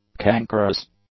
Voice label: "cankerous", English; adjectives